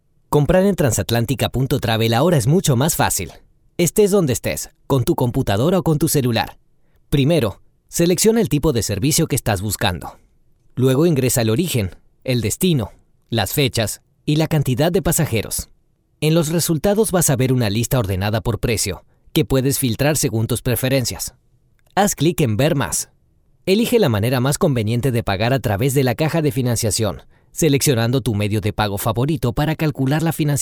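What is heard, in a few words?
Latin American Spanish, E-Learning; GoLocalise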